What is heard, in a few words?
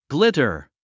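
"glitter", English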